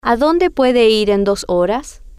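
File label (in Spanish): Adonde Puede Ir Dos Horas, spanish, female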